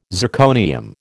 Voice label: "zirconium", American

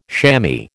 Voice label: "chamois", American

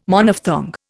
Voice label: "Monophthong", Indian

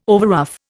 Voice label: "overruff", Indian

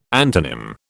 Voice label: "antonym", British

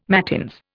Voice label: "mattins", Indian

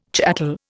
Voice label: "chattel", Indian

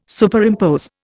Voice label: "Superimpose", Indian

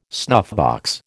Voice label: "snuffbox", American

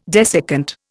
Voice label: "desiccant", Indian

